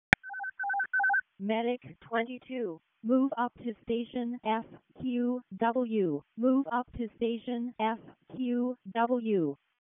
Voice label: EMS ALERT, p25